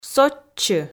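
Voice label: "сотчы", Komi, female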